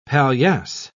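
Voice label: "palliasse", British English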